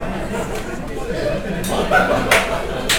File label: disgust, 居酒屋, Disgust; 嫌悪; 感情プロファイルマッチング環境音